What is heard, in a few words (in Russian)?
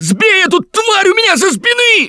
grabbed by jockey, left4dead2 russian, Survivor Voice; Gambler